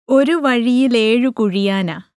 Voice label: Malayalam Recordings